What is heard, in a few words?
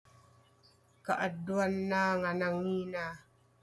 Ilocano